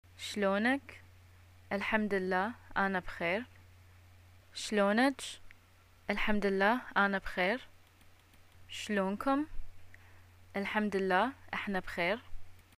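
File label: greeting how r u, Bahraini arabic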